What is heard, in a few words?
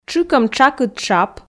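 "тшыкӧм тшакыд тшап", Komi, female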